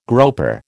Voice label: "groper", American